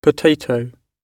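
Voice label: "potato", British English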